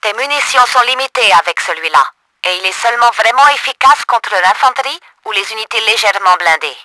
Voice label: TUTORIAL VULCAN CANNON, french; Battle Engine Aquila

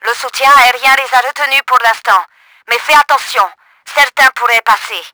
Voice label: STILL INSIDE, french; Battle Engine Aquila; XBOX

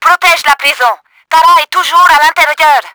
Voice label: DONT ATTACK, french; Battle Engine Aquila; XBOX

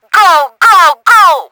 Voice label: RACING START, french; Battle Engine Aquila

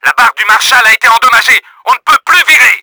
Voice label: RUDDER DOWN, french; Battle Engine Aquila

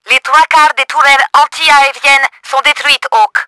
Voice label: P FLAK, french; Battle Engine Aquila; XBOX

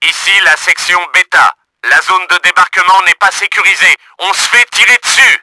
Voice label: BETA UNDER ATTACK, french; Battle Engine Aquila; XBOX

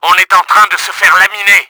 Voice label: HEALTH LOW, french; Battle Engine Aquila

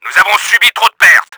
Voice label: FRIENDLY DESTROYED, french; Battle Engine Aquila; XBOX

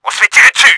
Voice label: UNDER ATTACK, french; Battle Engine Aquila

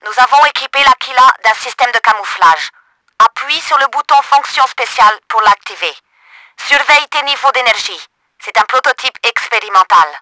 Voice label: CLOAK, french; Battle Engine Aquila; XBOX

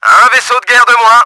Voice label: BATTLESHIP DESTROYED, french; Battle Engine Aquila

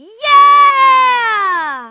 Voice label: fem

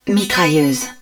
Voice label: rail gun, french; Battle Engine Aquila

